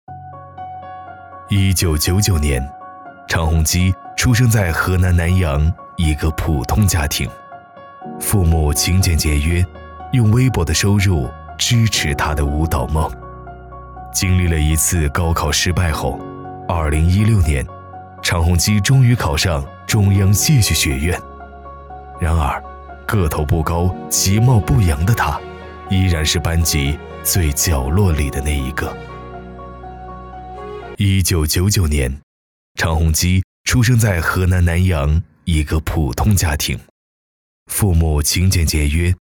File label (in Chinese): 男 人物介绍; 常宏基